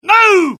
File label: suppress, dialogue UK; mohaa